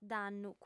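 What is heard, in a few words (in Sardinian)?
"dannu", female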